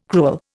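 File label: "crewel", Indian English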